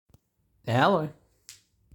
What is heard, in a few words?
hello phone answer